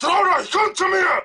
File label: angry; spackenmobs